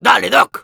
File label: activate charge, es; Demoman